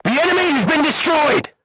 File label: voice message target destroyed, crew tanks portuguese